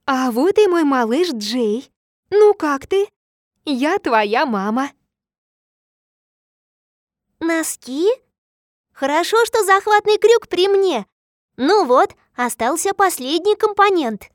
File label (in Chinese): 俄语模仿母亲声音; 俄语模仿母亲声音пробамультик